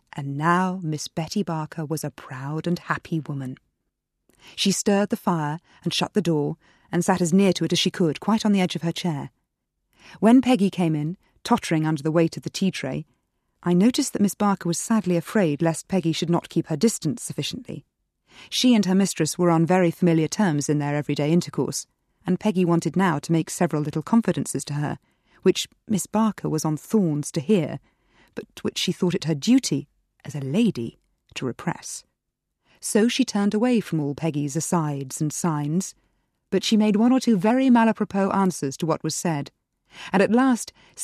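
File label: drama; 20s-30s; Deep tone; An assured, striking voice with a crisp finish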